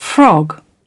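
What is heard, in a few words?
"frog", British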